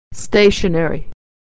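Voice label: "stationery", American English; stationary - stationery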